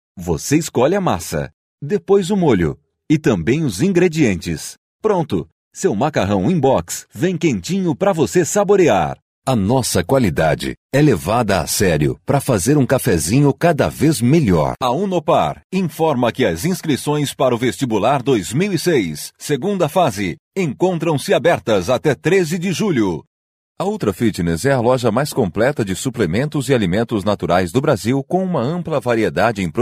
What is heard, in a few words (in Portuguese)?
locutor